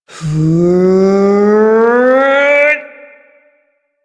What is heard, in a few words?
Ability Lift, Barks; Warframe; Corpus Lich; Persona C